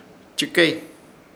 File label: "Chɨkey", Ishir Chamacoco; olvidar